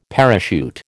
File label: "parachute", American